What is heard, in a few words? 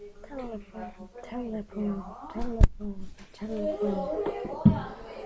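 "telephone", spoken word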